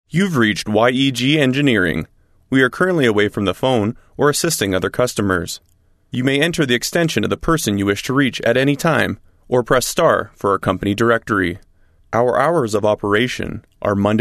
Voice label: Voice Prompt